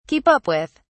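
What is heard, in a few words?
"keep up with", British English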